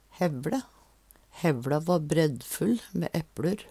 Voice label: hevLe, Numedalsmål; HevLa va braddmætt mæ epLur.; Hushald, mat, drikke

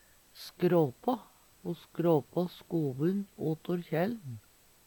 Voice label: skrapa, Numedalsmål; Ho skråpå skovun utor kjæL`n.; Verb; Veggli

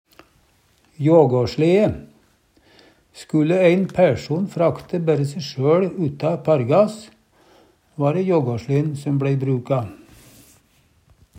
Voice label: jagaslee, Numedalsmål

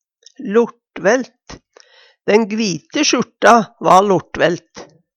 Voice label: lortvelt, Numedalsmål; Adjektiv

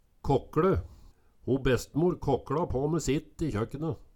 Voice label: kåkLe, Numedalsmål

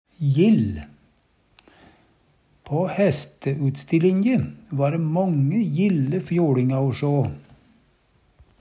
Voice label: jill, Numedalsmål; Adjektiv

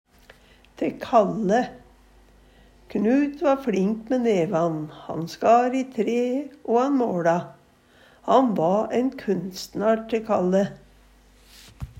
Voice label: te kalle, Numedalsmål; Knut va fLink mæ nevan. Han skar i tre, o han måLa. Han va ein kunstnar, te kalle.

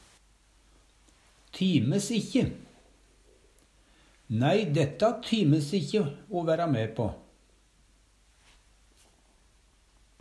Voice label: times ikkje, Numedalsmål; Nei, dætta times e ikkje o væra mæ på.

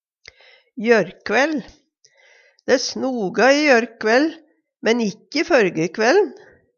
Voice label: jorkvaell, Numedalsmål; i gårkveld; Dæ snoga i jørkvæll, men ikkje førgekvæll'n.

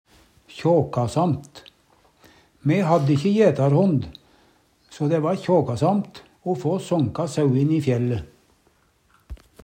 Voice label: kjåkasamt, Numedalsmål

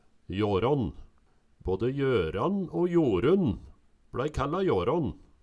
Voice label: "Jårån", Numedalsmål; Særnamn (personnamn); Jøran, Jorun, Jorunn

